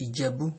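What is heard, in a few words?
"ijebu", Nigerian Pidgin, male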